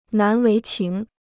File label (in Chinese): "难为情", Chinese